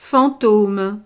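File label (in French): "fantome", French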